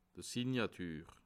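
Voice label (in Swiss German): "signatur", Alsatian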